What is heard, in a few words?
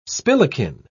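"spillikin", British English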